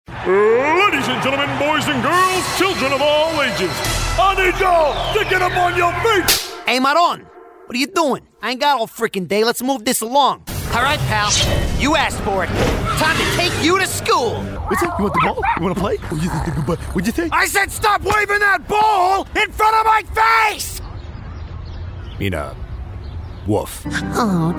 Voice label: animation